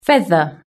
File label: "feather", british english